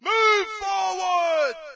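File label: British go go go; player; source engine; dod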